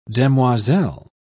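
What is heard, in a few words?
"demoiselle", British English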